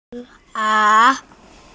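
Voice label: "si1kao3", Mandarin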